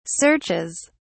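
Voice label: "searches", British English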